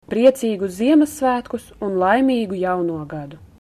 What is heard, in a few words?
christmas latvian